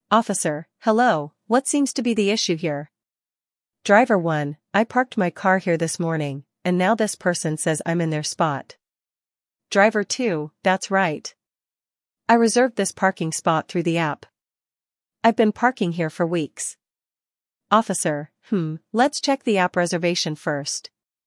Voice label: Driver conversation; celpip practice test; listening practice test